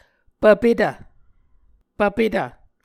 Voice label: "pvpetv", Muscogee

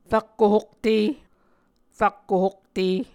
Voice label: "rakko hokte", Muscogee

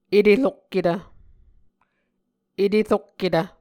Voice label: "eterokketv", Muscogee; Mvskoke Words with Repeated Consonants; Onvkv Ohhvyayices; A Dictionary of Creek/Muskogee; SIL International

